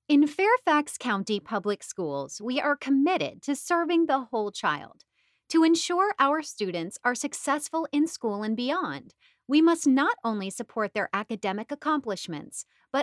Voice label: education sample